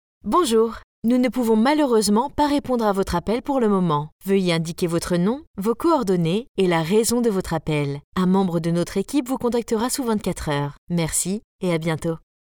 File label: IVR French